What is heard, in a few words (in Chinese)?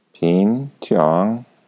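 "pinqiong", Mandarin Chinese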